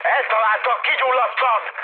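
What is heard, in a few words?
Hungarian on fire mood high, speech; War Thunder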